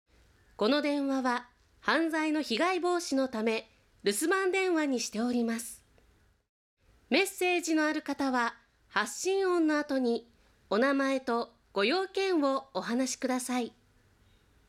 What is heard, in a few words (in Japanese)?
rusu narration hikume; 低めの声で話すバージョン; 留守番電話